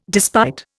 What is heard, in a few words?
"despite", Indian